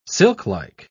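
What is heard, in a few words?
"silklike", British English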